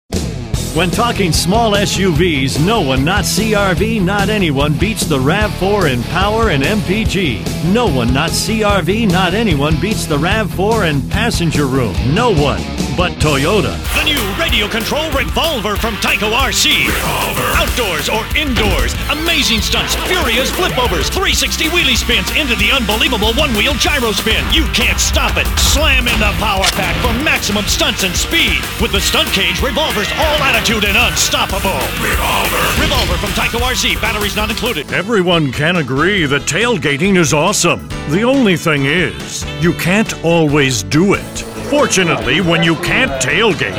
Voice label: Commercial